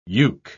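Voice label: "uke", English word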